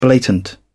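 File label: "blatant", British English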